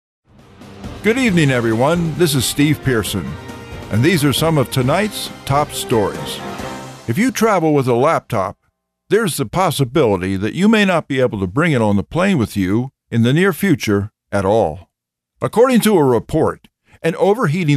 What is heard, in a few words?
TV Newscast